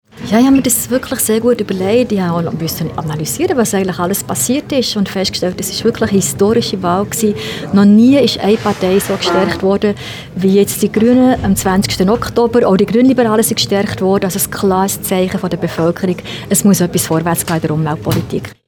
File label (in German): NEWS; Eindeutiger Wählerauftrag